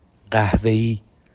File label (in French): Brun Farsi